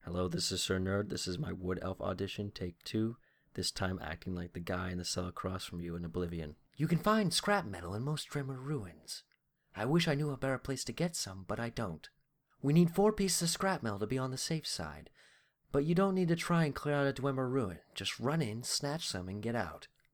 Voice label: smooth wood elf